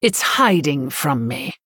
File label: Female Human ERROR STEALTH